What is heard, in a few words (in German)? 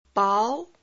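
Phoneme, chinesisch; Silben; Chinesischen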